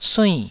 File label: "suinn4", taiwanese Syllables